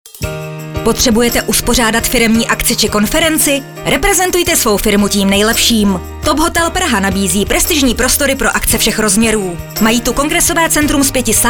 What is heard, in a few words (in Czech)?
Top hotel spot, profesionální ženský voiceover